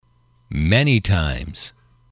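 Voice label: many times, English, male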